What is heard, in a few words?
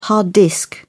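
"hard disk", British English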